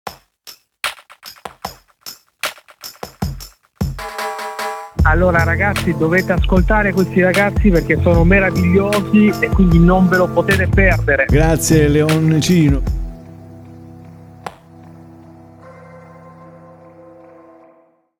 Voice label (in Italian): jingle saluto